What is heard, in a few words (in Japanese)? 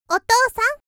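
（少女）お父さん, 元気な少女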